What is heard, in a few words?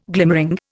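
"glimpse", Indian; Dictionary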